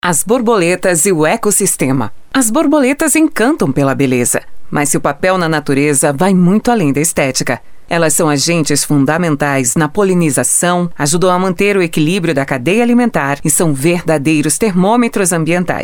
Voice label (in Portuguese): audio guia painel educacao ambiental voz feminina; exposicao casa das borboletas